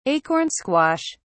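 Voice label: "acorn squash", British English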